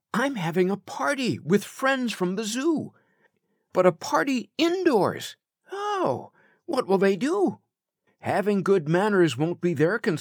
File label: kids audiobook; Wild About Manners